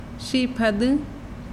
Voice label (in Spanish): piel, mazahua